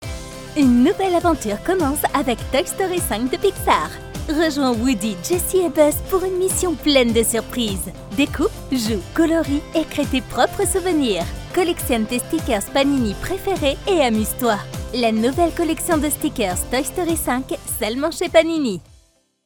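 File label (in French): Spot cine, comédienne voix-off; Stickers Toy Story 5; Panini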